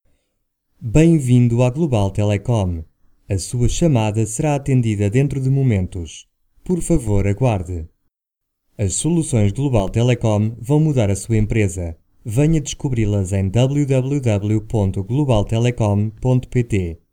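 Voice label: IVR Portuguese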